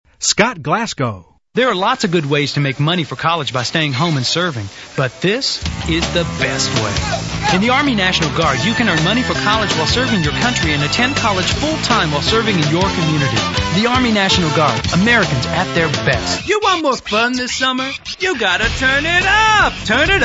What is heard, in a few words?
all male; Male Talent; Spotland Productions